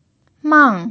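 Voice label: "mang", pinyin, fourth tone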